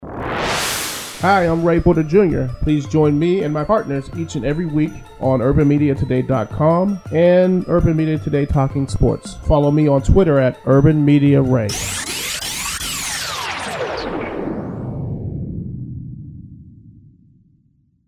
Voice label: Sports Drop; UMT